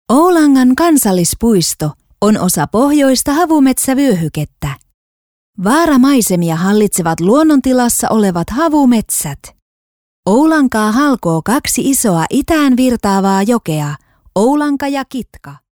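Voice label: Finnish female narration; european voices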